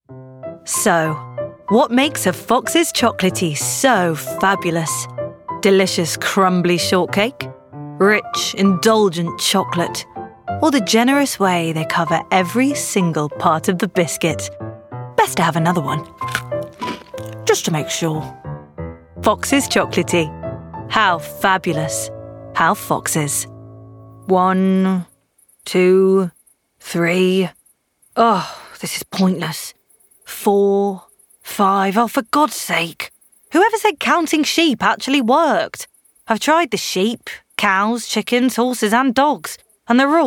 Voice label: commercial reel